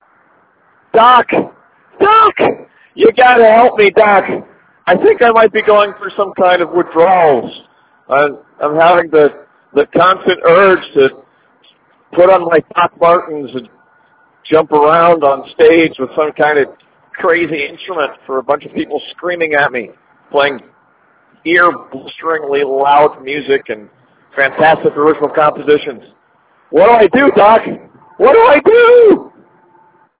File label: Voicemail; Music Camp Withdrawal